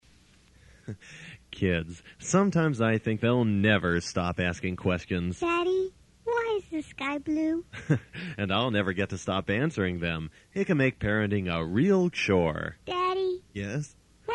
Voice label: Commercial; Time-Life